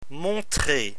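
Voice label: "montrer", French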